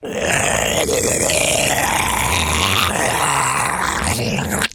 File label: Zombie Idle